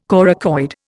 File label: "coracoid", Indian